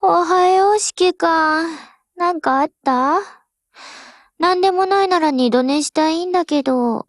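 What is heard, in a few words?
HELLO, Japanese; GF Alarm; M249SAW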